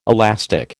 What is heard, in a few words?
"elastic", American